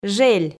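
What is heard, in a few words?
"жель", Komi, female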